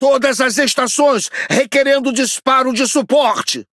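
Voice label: priority target, Brazilian Portuguese; speech; World Of Warships; PT BR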